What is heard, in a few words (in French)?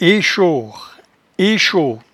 "echore", patois jura